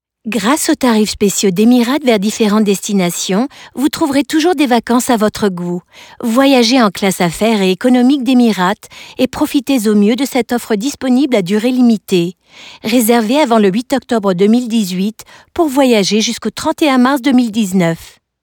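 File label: French Radio Spot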